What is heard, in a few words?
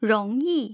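"rongyi", Mandarin Chinese, tone pairs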